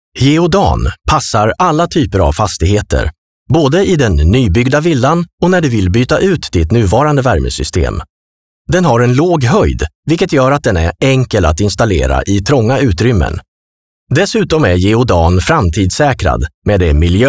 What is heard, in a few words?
Explainer Confident